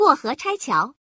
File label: dismantlement, male; FreeKill; standard cards